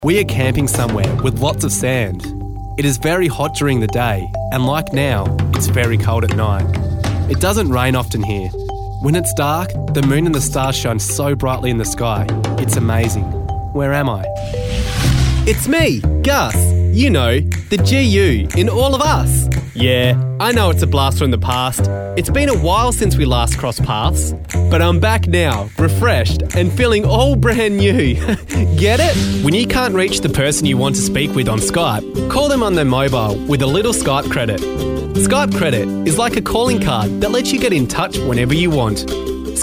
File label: english australian commercial